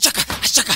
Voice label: spy jeers; spy jackson